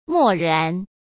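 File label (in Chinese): "漠然", Mandarin Chinese; chinese-flashcards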